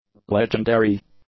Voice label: "legendary", english; adjectives